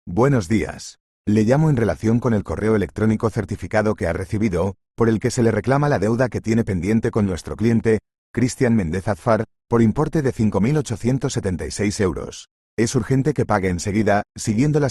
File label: audio call